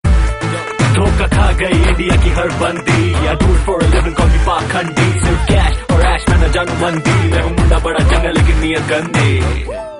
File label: Is Calling Rap